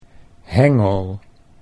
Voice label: "hengel", Dutch

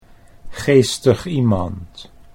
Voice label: "geestig iemand", Dutch

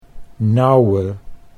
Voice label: "nauwe", Dutch

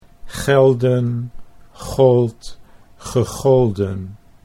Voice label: "gelden gold gegolden", Dutch

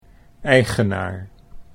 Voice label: "eigenaar", Dutch

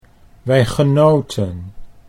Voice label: "wij genoten", Dutch

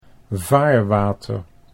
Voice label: "vaarwater", Dutch

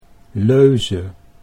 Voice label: "leuze", Dutch

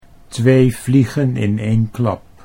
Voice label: "2 vliegen in 1 klap", Dutch

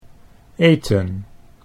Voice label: "eten", Dutch

